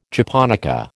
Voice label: "japonica", American

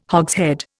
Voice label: "hogshead", Indian

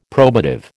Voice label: "probative", American

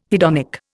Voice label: "hedonic", Indian

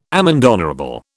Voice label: "amende honorable", British

